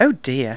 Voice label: "oops", Speech; Worms Armageddon